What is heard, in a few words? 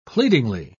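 "pleadingly", British English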